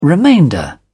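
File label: "remainder", British English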